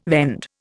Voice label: "vend", Indian

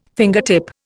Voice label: "fingertip", Indian

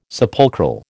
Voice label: "sepulchral", American

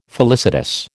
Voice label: "felicitous", American

